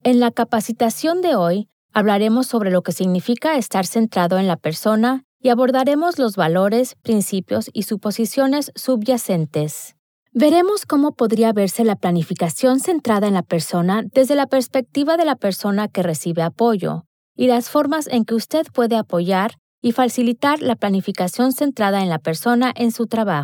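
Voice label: Spanish elearning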